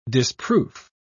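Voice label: "disproof", British English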